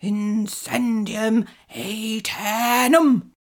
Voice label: incendium aeternum commanding, incantations older female fire spells